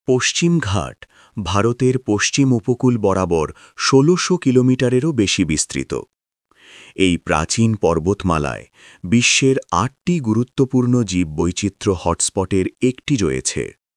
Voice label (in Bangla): documentary, Bengali